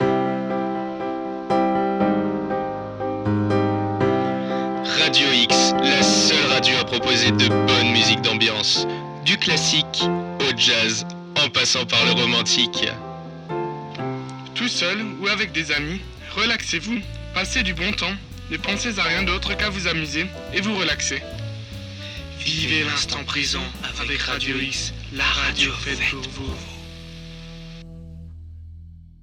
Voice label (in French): pub radiophonique